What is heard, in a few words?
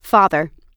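"father", American English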